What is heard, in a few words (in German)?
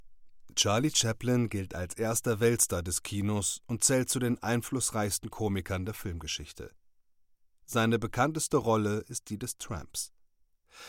Dokumentation; LOFT VOICES